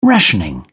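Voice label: "rationing", British English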